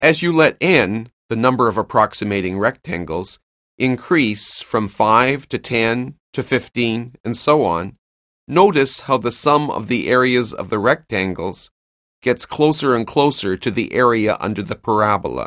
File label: narration; CALCULUS 7E; cengage